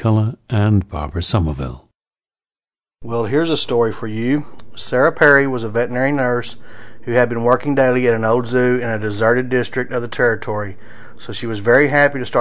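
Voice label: texas, International Dialects of English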